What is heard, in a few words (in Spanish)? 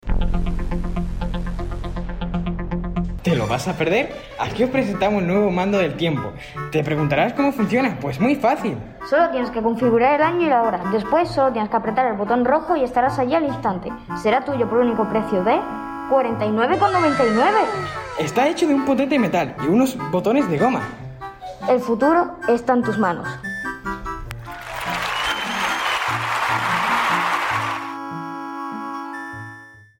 Anuncio